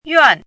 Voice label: "yuàn", hanzi